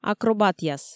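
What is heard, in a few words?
"акробатъяс", Komi, female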